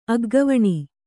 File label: "ಅಗ್ಗವಣಿ", Kannada